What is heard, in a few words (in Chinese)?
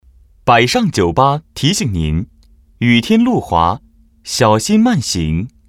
广告, 温馨提醒, putonghua nan; 百尚酒吧